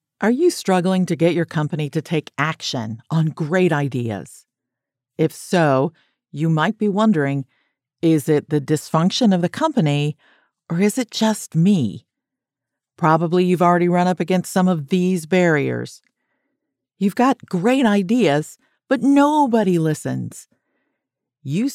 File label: Female 1st person business learning, Professional Female Audiobook Narrator; home studio in Aus